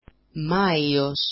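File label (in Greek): "maios", Greek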